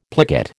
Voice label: "plicate", American